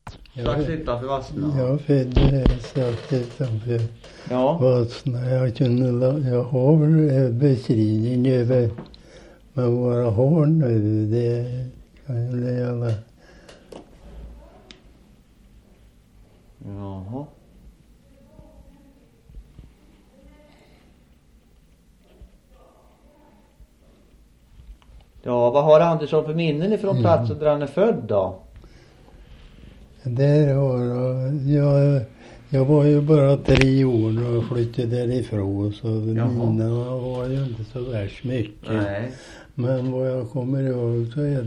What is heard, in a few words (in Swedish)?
Vadstena, dialekter; Folkminnen